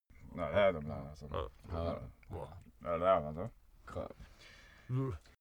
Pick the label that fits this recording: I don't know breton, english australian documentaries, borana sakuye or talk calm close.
talk calm close